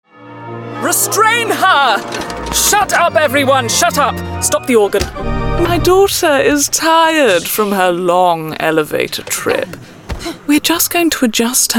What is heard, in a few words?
Animation, london